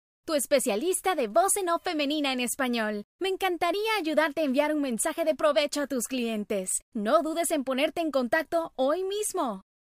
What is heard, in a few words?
spanish voice over artist